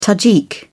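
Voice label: "tajik", British English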